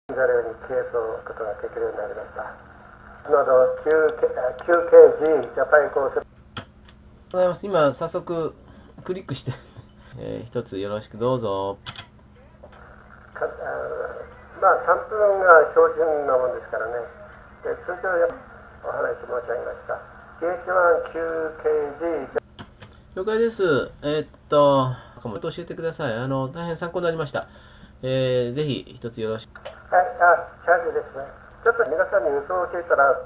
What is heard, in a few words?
echolink